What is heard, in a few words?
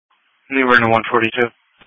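Police Patrol Dispatch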